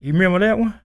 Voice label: SPOKEN, Blues Soul, TALK

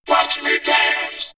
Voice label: Vocoder Watch Me Dance, robot vox; X-Static Goldmine

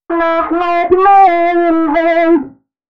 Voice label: female a 140, short hooks, Dance Voice; Mutekki Media; Dance Ultra Voice VOL-1

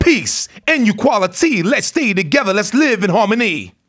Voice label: RAP PHRASE; RAPPER 1-2

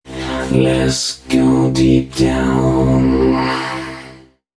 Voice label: male c135, voice; FX; Mutekki Media; Dance Ultra Voice VOL-1

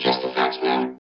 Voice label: VOCODER VOX; Masterbits - Tekkno Trax

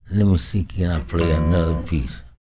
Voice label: talk, spoken, blues soul

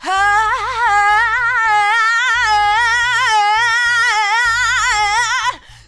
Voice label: WHA YA, FEMALE; AMG; Global Trance Mission VOL-2

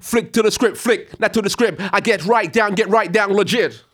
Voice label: RAP PHRASE

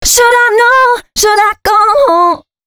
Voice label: female, Dance Ultra Voice hooklines; Mutekki Media; Dance Ultra Voice VOL-1

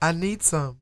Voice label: DIALOG, R&B Dance, FEM. SPOKEN; Spectrasonics Vocal Planet

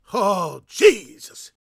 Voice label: PREACHIN, Gospel, PREACHER; ILIO; Vocal Planet

